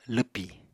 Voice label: "lwpì", Apatani